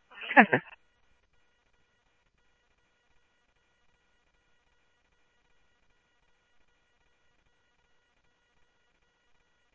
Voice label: Tempe Police, scanner audio; SimulcastF-Tempe; TEMPE PD A04